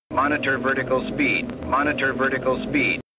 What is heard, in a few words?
Male Monitor Vertical Speed